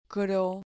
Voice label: "cro", Spanish syllable